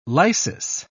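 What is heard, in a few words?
"lysis", English, British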